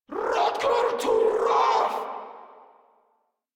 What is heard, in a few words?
Cover Me Grineer Female; Warframe